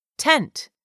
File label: "tent", English; 3000 words